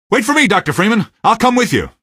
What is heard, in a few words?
squad reinforce single, male; Garry's Mod; hl2